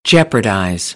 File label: "jeopardize", American English